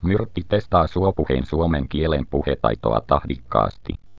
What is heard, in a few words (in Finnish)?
suopuhe mies